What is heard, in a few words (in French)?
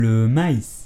"maïs", French